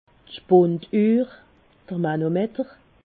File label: "spunduhr", alsace